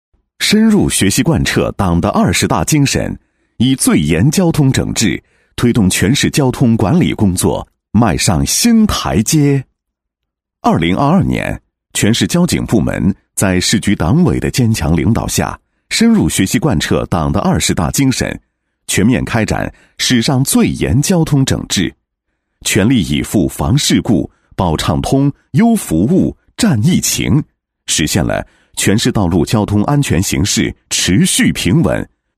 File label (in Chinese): 男 党建专题 大气磁性; 深入学习党的二十大精神; 高端质感 高端大气; 党建专题《深入学习党的二十大精神》-大气磁性